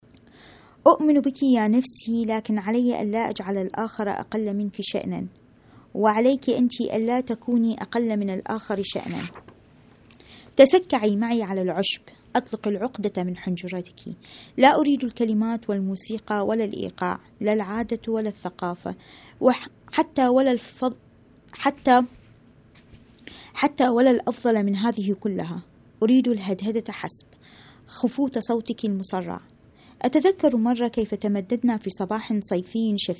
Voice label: arabic